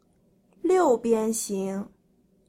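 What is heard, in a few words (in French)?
"hexagone", French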